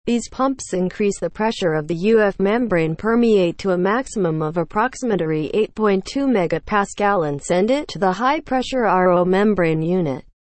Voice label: english, seawater desalination; watercompany